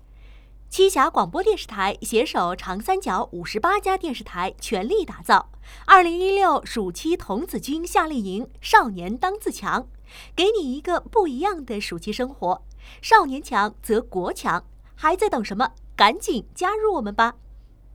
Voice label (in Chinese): 广告 活泼类 配音